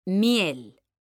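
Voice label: "miel", Spanish, female; mekanta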